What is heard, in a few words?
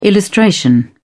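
"illustration", British English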